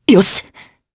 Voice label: Vox Happy, jp; heroes; Theo; kingsraid

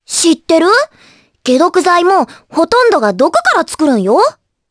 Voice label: vox, jp; Requina; kingsraid

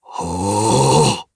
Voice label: Vox Casting, jp; Dakaris; kingsraid

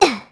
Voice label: Landing, kr; Hilda; kingsraid; voices heroes

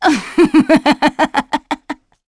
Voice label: Shamilla Vox Happy, voices heroes en; kingsraid-audio

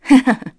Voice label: Vox, kr; Artemia; kingsraid

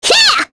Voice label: Attack, kr; voices; heroes; Sonia; kingsraid

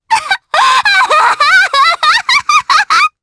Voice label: Happy, jp; Viska; kingsraid

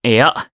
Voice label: Vox Deny, jp; voices; heroes; Kasel; kingsraid

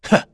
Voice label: Landing, kr; Lusikiel; kingsraid

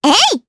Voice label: Attack, jp; Estelle; kingsraid

